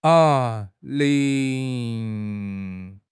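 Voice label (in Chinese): "淋", Chinese; HSK; HSK6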